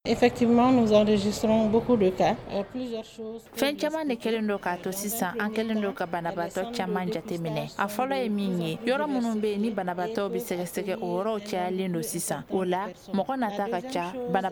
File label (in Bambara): magazine bamanankan